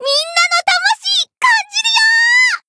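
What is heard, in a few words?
limit break, Japanese; ilulu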